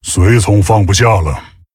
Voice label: Male Tauren, zh; ERROR FULL MINIONS

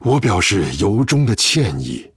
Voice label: Sorry, zh

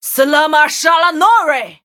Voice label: Attack, zh

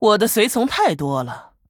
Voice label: Female Human ERROR FULL MINIONS, zh

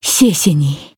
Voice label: Female Night Elf Thanks, zh

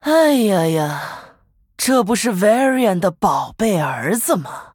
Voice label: Female Human Start, zh; Anduin

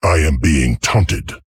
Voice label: Male Tauren, ERROR TAUNT

